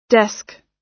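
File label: "desk", American English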